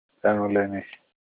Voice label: "goat", Olukumi